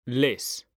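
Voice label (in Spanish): "les", Spanish, male; mekanta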